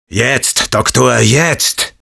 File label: sniper activate charge, de; "Jetzt, Doktor, jetzt..."